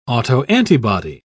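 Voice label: "autoantibody", British English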